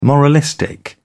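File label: "moralistic", British English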